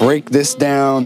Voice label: LoFi Phrases, LoFi Vocals; Vengeance Samples